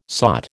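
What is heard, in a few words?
"sot", American English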